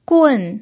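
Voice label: "gun" fourth tone, pinyin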